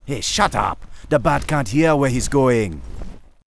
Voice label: Troll batrider Angry; warcraft3